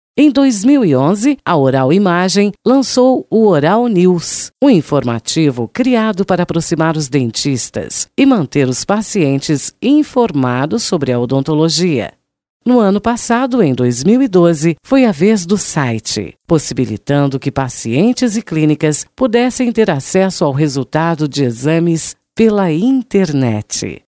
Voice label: portuguese documentaries